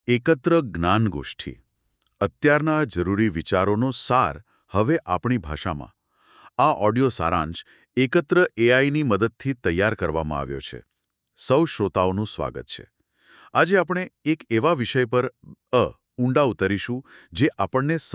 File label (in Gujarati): Audio Gujrati; Waves